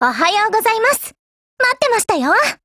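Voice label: HELLO, Japanese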